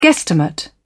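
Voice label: "guestimate", British English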